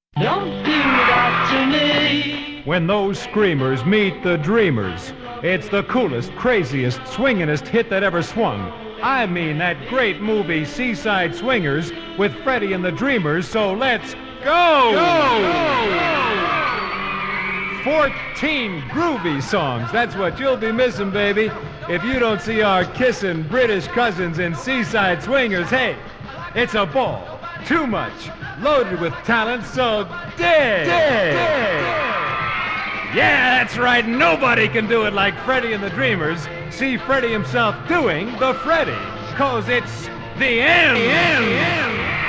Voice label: Radio Commercials; Movie Soundtrack; 1965

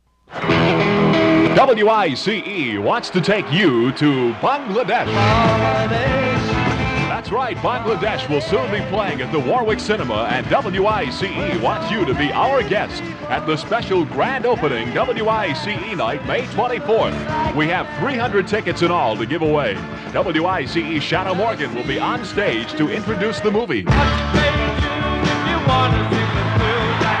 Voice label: Radio Commercials - Radio Spot; WICE; 1972; Bangla Desh Contest

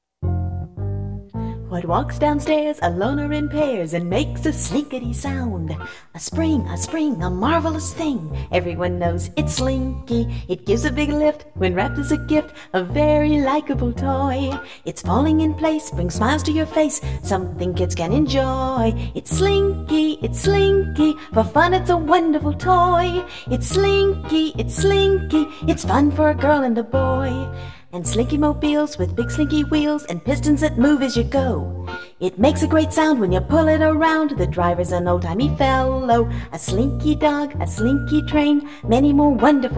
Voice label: TV Commercials; Slinky

